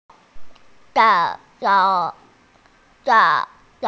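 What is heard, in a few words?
"raise hand", Mandarin Chinese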